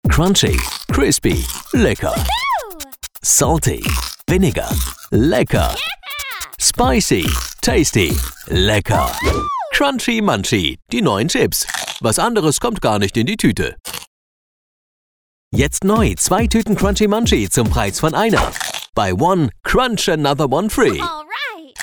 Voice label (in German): Sprecher werbung; chips